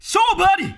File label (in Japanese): 勝負あり！（男性）